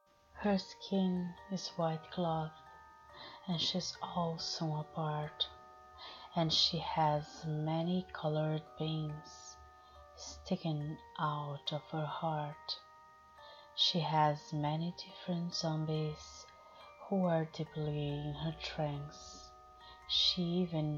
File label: [F4A] [Poetry reading] [Brazilian accent]; Sfx; Voodoo girl; Tim Burton